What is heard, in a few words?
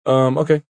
Umm Okay Male, Exclamation